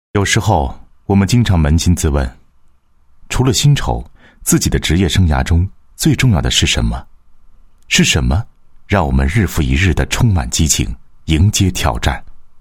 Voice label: 独白 职业生涯, 国语男声配音, 温情

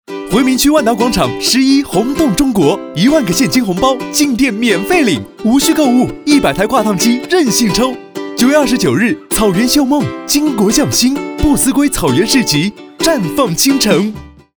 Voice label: 年轻广告, 万达广场

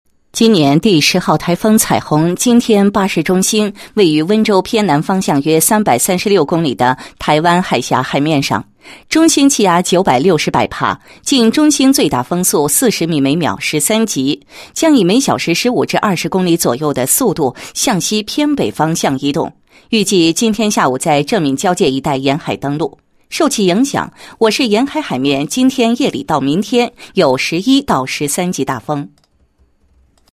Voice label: 女 专题, 天气预报 彩虹台风介绍

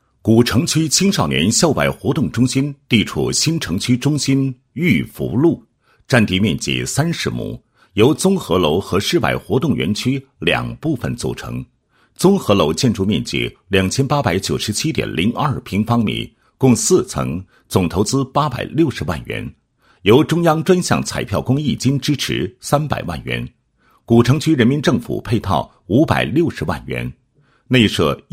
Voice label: 男 公益; 古城区青少年校外活动中心

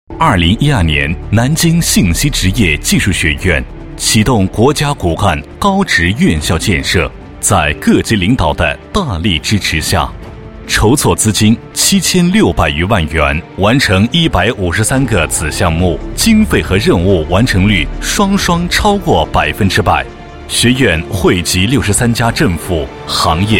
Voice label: 男 解说; 某信息职业技术学院视频解说词

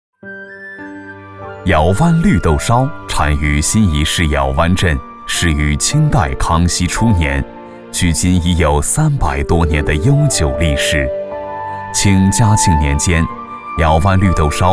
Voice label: 男 舌尖上的中国风格; 窑湾绿豆烧

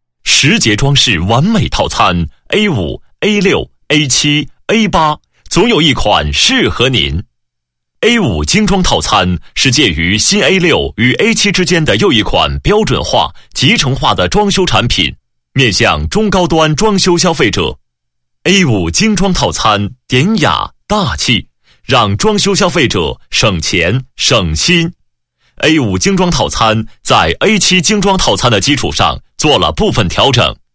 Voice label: 男声配音; 靓仔专题; 十杰装饰完美套餐

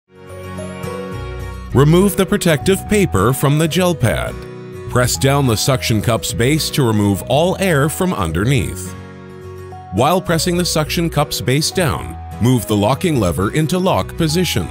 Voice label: 外籍英男 轻快自然 使用说明 手机支架

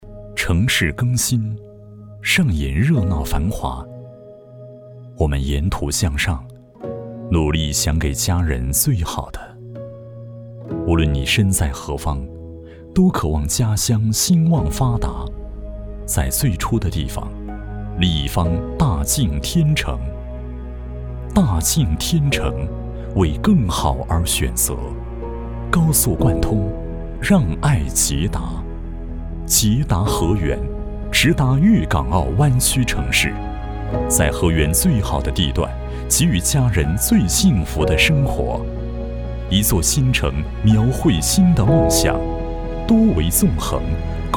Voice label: 男, 粤港澳; 粤港澳二

